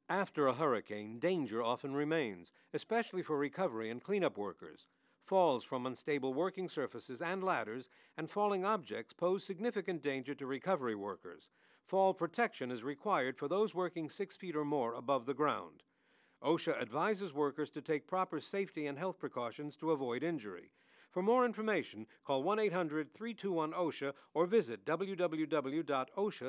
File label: english; falls; Hurricane Facts